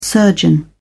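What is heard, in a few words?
"surgeon", British English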